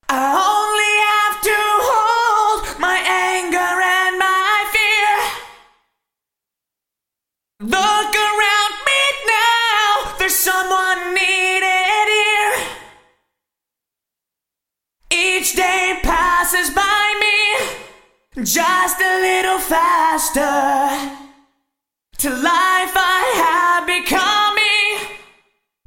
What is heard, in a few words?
Vocals Sony HR MP5 Voc Chamber Vintage Digital